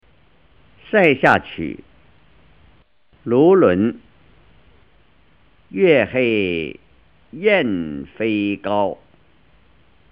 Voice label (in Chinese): 唐诗 朗读; 唐诗三百首; 夏青; 卢纶